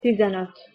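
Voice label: "tizenot", Hungarian pronunciation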